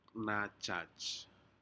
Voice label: "najaj", Aneityum; fish